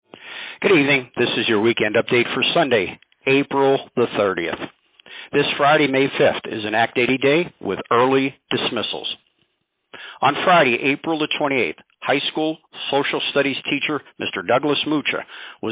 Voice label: Robocall